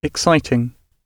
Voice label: "exciting"